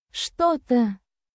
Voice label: Russian, Russian phrases for everyday conversation